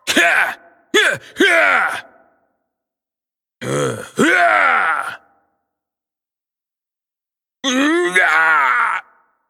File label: cinematic voice, ru; lineage2